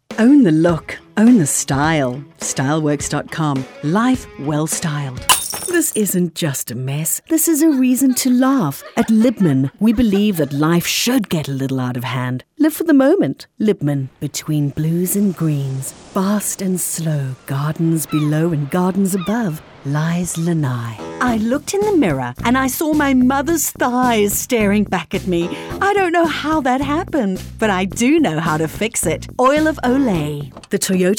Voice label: Commercial